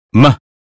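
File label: American phonetics sound moon; 英语音标发音（剑桥版）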